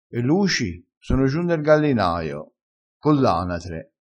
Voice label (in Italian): "coll", crespinese